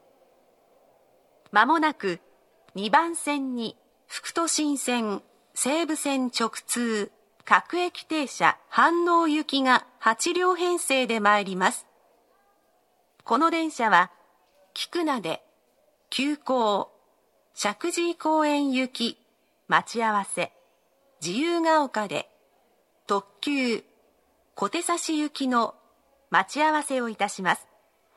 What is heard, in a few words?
local express limited express, station; yokohama; hanno; express-shakujii-koen; limited-express-kotesashi; tokyu-corporation